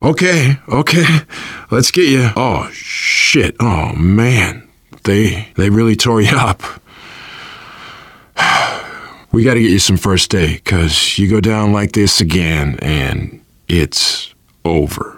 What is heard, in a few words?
revive critical friend, voice, biker; Left 4 Dead 2; survivor